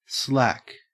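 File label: "slack" English, Australian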